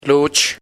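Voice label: "boy", "niño", Nasa Yuwe; paez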